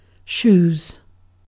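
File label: "shoes", British English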